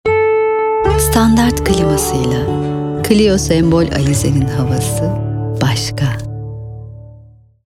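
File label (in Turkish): sicak sakin, seslendirme; enerjik tempolu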